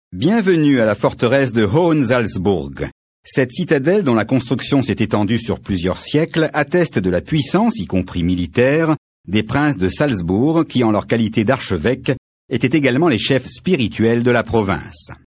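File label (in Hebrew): French, קריינות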